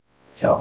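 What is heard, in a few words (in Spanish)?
Voice Acting, es, female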